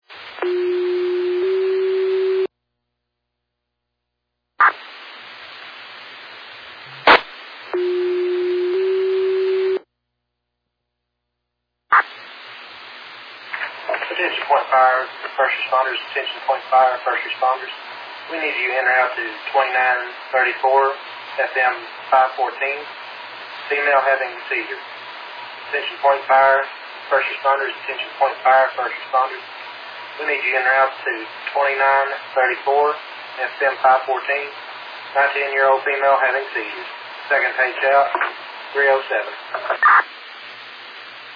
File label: Scanner